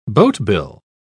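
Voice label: "boatbill", British English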